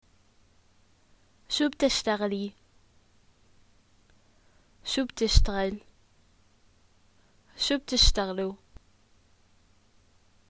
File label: what do you do, arabic levantine